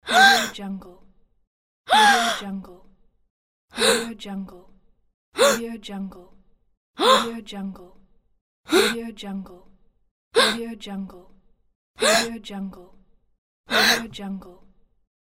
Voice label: female voice reaction surprised